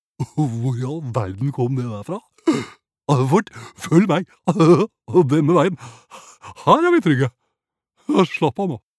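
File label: dub, Norwegian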